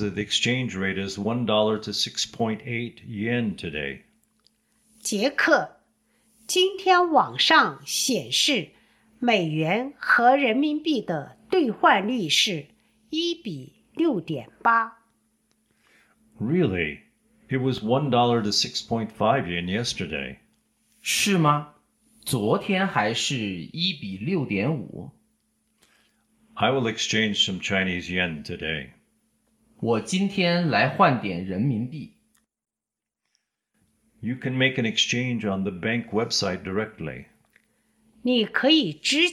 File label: Dialog